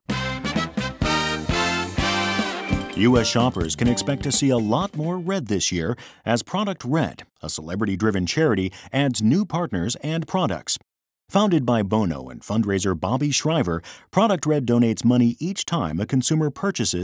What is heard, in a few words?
newscaster